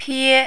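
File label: "pie", Mandarin, first tone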